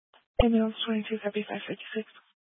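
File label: Fire Dispatch, scanner audio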